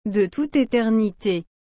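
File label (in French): "de toute eternite", French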